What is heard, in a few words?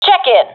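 request report, professional female; vo; Counter Strike Model Pack; CS:GO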